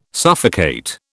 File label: "suffocate", British